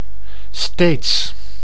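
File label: "steeds", Dutch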